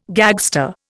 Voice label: "gagster", Indian